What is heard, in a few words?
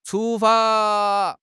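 "chu1 fa1", Chinese; HSK4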